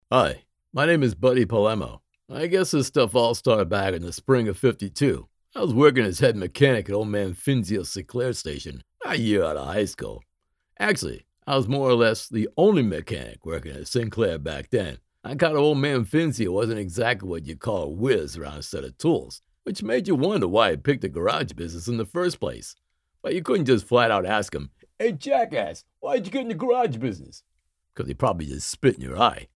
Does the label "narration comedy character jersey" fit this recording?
Yes